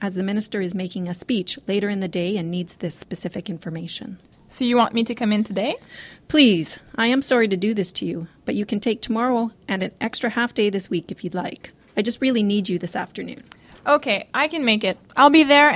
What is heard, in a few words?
dialogue personal day request